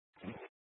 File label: Mesa County Sheriff Dispatch